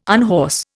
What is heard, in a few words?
"unhorse", Indian